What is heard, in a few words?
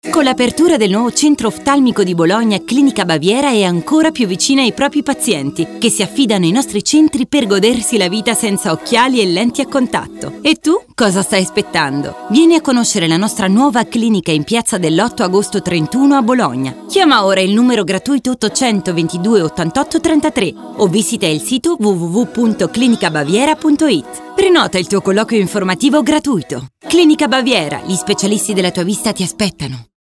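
Italian; generico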